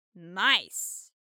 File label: girl "nice"; Inseminator